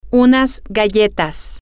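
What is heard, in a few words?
female, spanish; some Cookies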